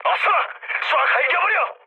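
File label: Korean attack position mood med, speech; War Thunder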